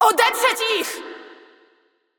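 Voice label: Polish assist; Irelia; bloom minigame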